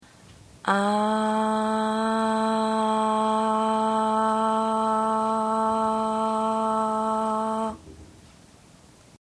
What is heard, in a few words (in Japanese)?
地声サンプル